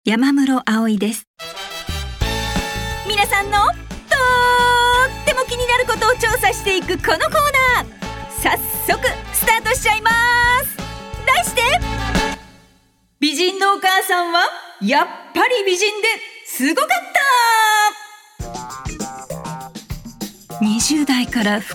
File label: female tv program